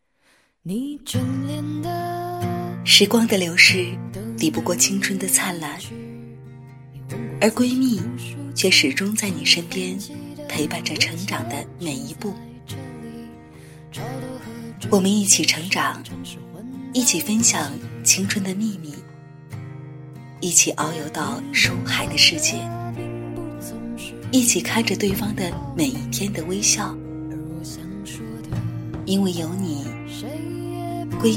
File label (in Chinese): 女 微电影; 闺蜜